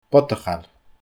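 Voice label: "bodachail", Scottish Gaelic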